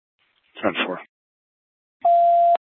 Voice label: PD Dispatch